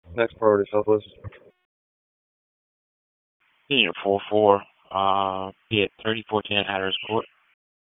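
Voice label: Police Southwest District Dispatch, p25; Raleigh Police Southwest District Disp; wake p25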